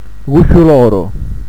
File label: "gughjuloru", Carigliaro